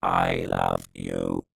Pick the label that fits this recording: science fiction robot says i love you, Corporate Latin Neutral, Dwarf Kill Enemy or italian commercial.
science fiction robot says i love you